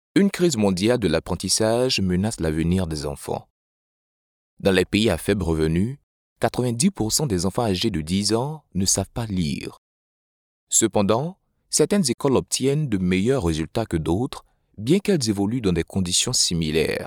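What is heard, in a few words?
African French, male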